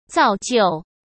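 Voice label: "造就", Mandarin Chinese; hsk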